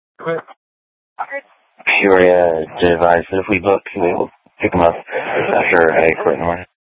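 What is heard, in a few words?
Sheriff, Parks, Sheriff radio scanner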